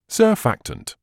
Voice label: "surfactant", English

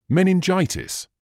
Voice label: "meningitis", English

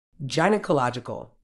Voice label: "gynaecological", medical English

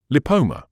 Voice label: "lipoma", English

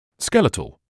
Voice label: "skeletal", English

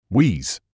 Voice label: "wheeze", medical English

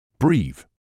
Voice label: "breathe", medical english uk; briːð; breedh

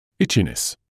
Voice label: "itchiness", English

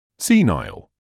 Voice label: "senile", English

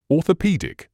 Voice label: "orthopaedic", English

